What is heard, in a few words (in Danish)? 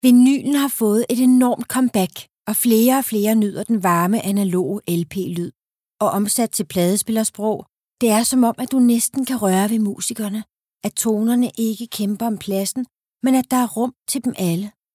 DK Tekst